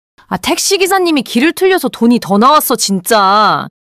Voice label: anger; monologue